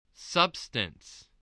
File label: "substance", spelling